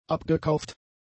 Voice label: "abgekauft", German; adjectives

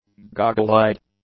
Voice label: "goggle-eyed", english; adjectives

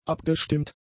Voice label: "abgestimmt", German; adjectives

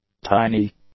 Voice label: "tiny", English; adjectives

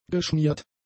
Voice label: "geschmiert", German; adjectives

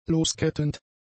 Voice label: "loskettend", German; adjectives

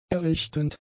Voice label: "errichtend", German; adjectives

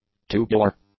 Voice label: "tubular", English; adjectives

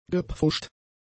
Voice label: "gepfuscht", German; adjectives

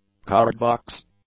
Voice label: "cardbox", English; nouns

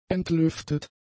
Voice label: "entlüftet", German; adjectives

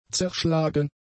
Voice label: "zerschlagen", German; adjectives

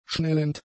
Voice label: "schnellend", German; adjectives

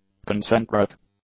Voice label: "concentric", English; adjectives

